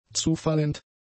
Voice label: "zufallend", German; adjectives